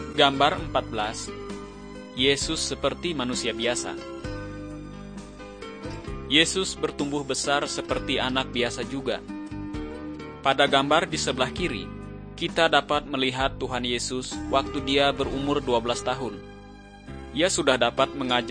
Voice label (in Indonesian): kabar baik good news bahasa indonesia; malay